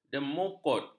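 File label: "demukut", Malay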